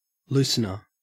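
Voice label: "loosener", Australian English; pronunciations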